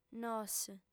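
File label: "nos" Sardinian, female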